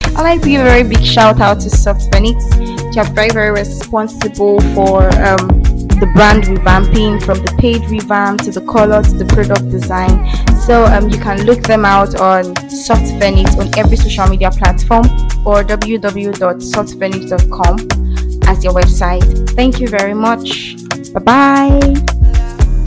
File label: testimonial